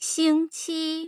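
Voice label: "xingqi", Mandarin Chinese, tone pairs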